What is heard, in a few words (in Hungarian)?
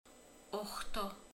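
"nyolc", Hungarian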